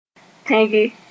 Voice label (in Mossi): "tẽge", Moore